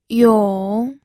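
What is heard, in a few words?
"yong3", Mandarin Chinese pinyin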